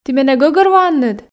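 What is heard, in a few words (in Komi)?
"ті менӧ гӧгӧрвоанныд", Komi, female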